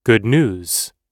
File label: "good news", US English